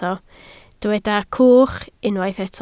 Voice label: "cwch", Welsh

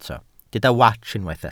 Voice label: "wats", Welsh

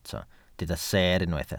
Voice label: "seer", Welsh

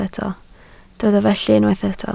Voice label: "felly", Welsh

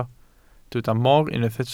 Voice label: "mor", Welsh

